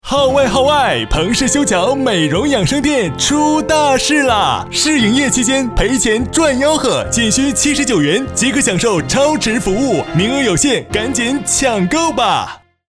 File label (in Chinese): 幽默 促销